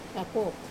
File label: "papo", earlier, Wamesa